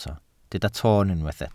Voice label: "toon", Welsh